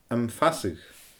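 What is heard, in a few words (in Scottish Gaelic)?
"Am Fasadh", Scottish Gaelic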